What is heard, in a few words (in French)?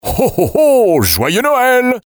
Hohoho! Joyeux Noel, ACAPELLA; pack noel